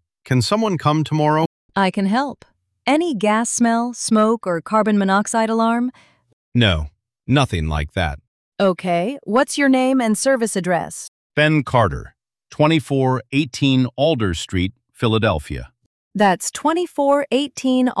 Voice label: sample call, hvac ai receptionist call; race street systems